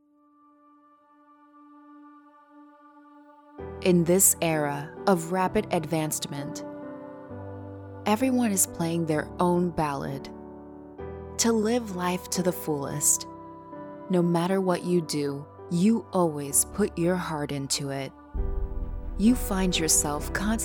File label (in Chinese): 外籍女英 外语 美式英语 旁白 讲述